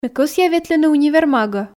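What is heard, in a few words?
Komi sentences, female; ме кӧсъя ветлыны универмагӧ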